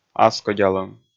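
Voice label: "аскодялӧм", Komi, male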